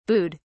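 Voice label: "booed", British English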